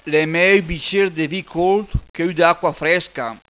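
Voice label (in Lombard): L'è mèi ü bicér de v' colt, che ü de acqua frèsca., bergamasco; E' meglio un bicchiere di vino caldo; PROVERBI BERGAMASCHI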